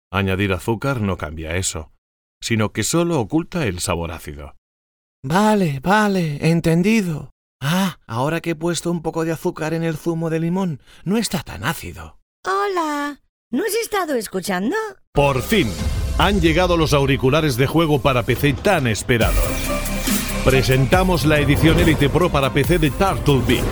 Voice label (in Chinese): 西班牙语男, 多风格合辑